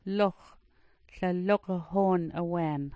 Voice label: "lox", smalgyax

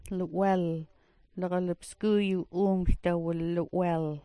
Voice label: "li'wel", smalgyax

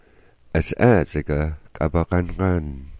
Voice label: "ats'aats'ik", Smalgyax

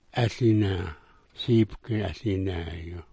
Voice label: "aliinaa", smalgyax

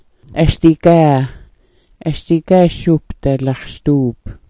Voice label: "asdigaa", smalgyax

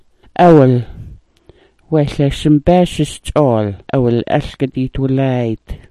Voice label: "awil", Smalgyax

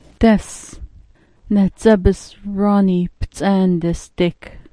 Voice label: "das", smalgyax

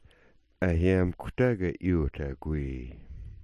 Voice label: "ayam", Smalgyax

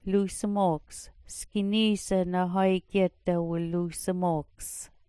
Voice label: "lusimooks", smalgyax

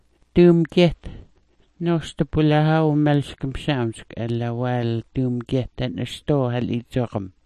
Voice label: "duumgyet", smalgyax

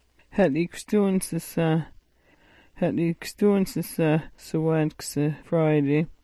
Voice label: "ha'likwstuunsasah", smalgyax

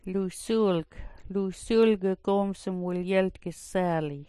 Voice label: "lusuulk", smalgyax

